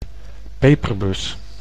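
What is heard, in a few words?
"peperbus", Dutch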